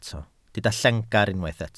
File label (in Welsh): "llengar" Welsh